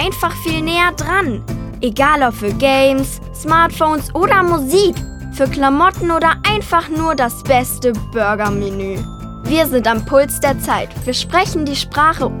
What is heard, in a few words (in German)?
Teenager Stimme